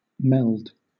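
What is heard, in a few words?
"meld", British English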